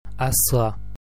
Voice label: broad s, gaelic